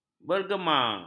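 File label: "bergema", Malay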